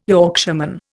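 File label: "Yorkshireman", Indian English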